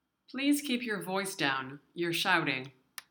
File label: voice down; gritar